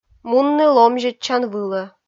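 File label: "мунны ломзьӧдчан вылӧ", Komi, female; kpv audio dict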